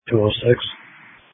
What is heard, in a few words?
Sheriff Dispatch